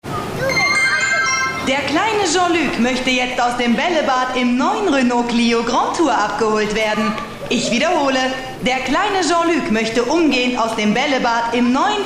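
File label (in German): Sprecherin, female speaker, German; WERBUNG; RENAULT: Bällebad Clio GT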